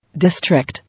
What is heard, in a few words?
"District" English